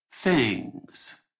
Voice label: Operator Voice; Sacrifice Quest; Mimic Beat

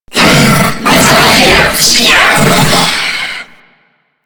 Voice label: Boss Speak Game Taunt; Warframe; Taunts; Lech Kril

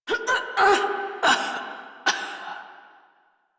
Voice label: Death, Female; Dialog; en; Warframe; Railjack Crew; Steel Meridian

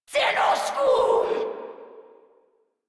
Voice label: Player Spotted Grineer Female, Barks, Battle; Warframe

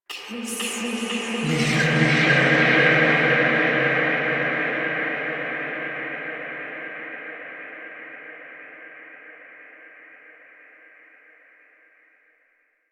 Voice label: Dialog Effect; Warframe; The New War; Finale

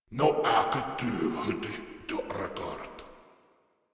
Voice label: Patrol, Grineer Male; Warframe

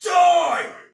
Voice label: Attack Normal, Soldier Male; Sinecure

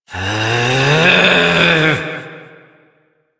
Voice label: Frustration

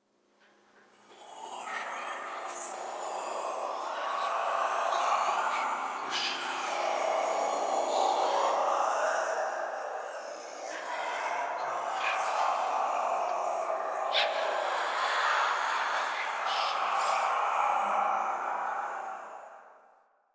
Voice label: Whispers; Ambience; Muruk Vull

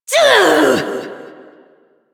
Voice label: Frustration

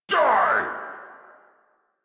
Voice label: angry, en; Warframe; Tyl Regor Boss; Efforts

